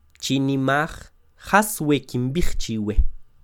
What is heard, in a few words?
Chinimaj jas we kimb'ij chiwe, Quiché; Obedezcan esto que les voy a decir (aquí y ahora)!; INALI; CHQETA